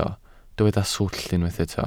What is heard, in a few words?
"swllt", welsh